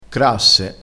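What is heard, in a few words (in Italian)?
"crasse", dialetto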